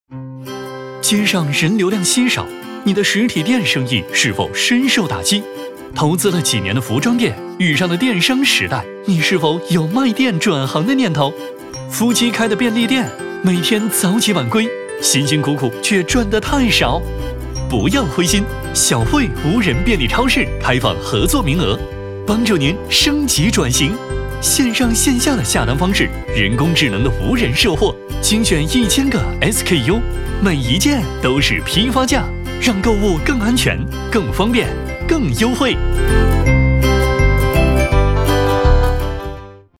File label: Explainer video